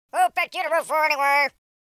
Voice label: angry, US Sports speech